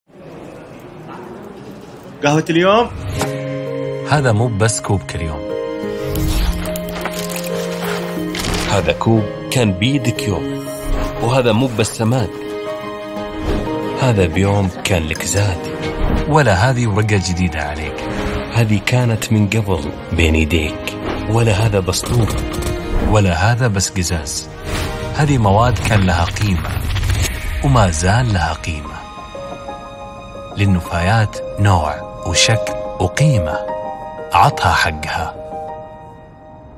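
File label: Saudi Najdi Promotional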